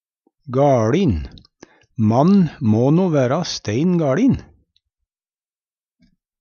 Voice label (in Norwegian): gaLin, Numedalsmål